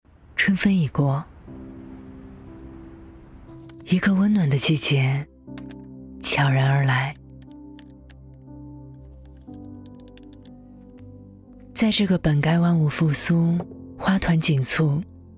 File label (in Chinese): 女 走心旁白 笑; 春天